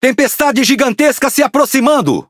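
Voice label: Speech Alarms Storm, Portuguese, Brazilian; World Of Warships; PT BR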